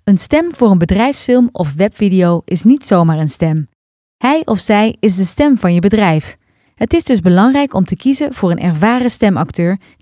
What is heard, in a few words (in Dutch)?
BEDRIJFSFILM